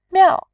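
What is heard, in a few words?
"miao", Mandarin, tone 4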